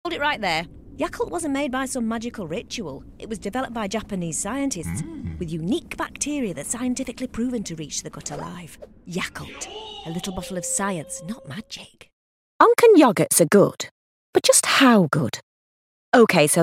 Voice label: Commercial Reel